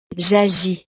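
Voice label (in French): "jagis", French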